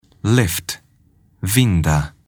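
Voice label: "lift", English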